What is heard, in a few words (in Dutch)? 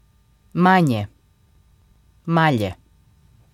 manje, kroatisch; minder